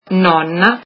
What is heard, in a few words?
grandmother, italian